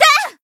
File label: attack, jp; tsukiha